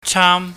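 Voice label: "chaam", Cantonese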